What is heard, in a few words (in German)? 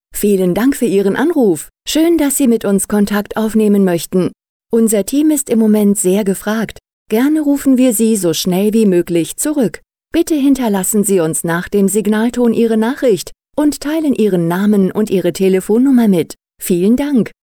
ansage (w)